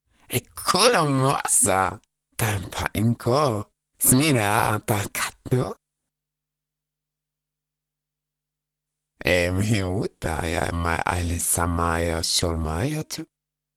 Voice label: talk Flirt

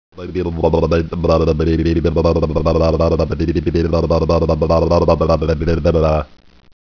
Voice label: babble

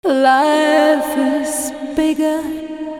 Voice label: voice FX, Drum+Bass, 160; eJay

